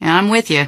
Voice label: answer, female; npc; Garry's Mod; hl2

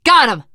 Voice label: kill confirmation, teengirl, voice; Left 4 Dead 2

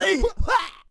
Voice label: Asian Ship Vox; Warlords; Units

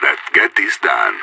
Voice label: radio lock n load; idf

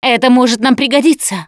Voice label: Take Pills, russian; Producer

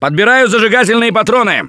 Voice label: incend ammo, russian, Survivor Voice; Gambler

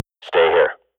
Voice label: radio bot hold, swat; Global Offensive; player vo

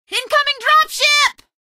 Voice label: incoming dropship, female; Garry's Mod; hl2

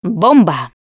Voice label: take pipe bomb, russian, Survivor Voice Producer

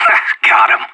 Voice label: enemy down, player vo anarchist; Counter-Strike Global Offensive

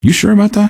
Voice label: answer, male; npc; Garry's Mod; hl2

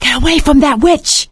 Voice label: witch getting angry, survivor voice; Left 4 Dead 2; producer

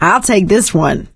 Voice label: take submachine gun, survivor voice; Left 4 Dead 2; producer

